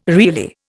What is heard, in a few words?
"really", Indian